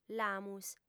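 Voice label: "l'amus", female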